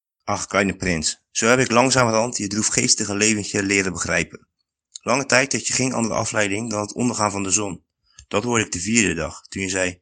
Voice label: Niederlaendisch, Rotterdam

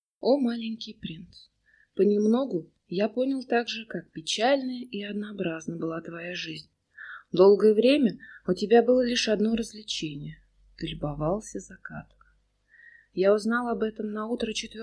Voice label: Russisch Ufa

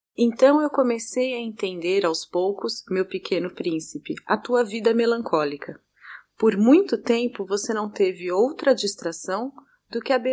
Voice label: Portugiesisch Sao Paulo

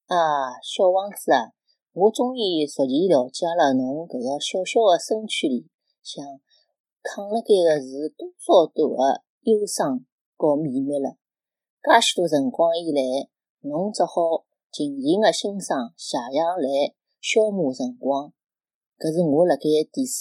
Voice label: Chinesisch Shanghai

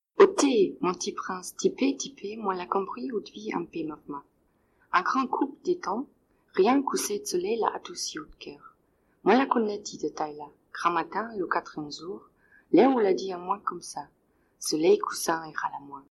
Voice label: Kreolisch Reunion Frankreich

